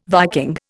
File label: "Viking", Indian